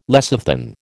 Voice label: "lecithin", American